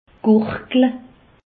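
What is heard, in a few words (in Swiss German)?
"gurigle", Alsatian; medecine; gargariser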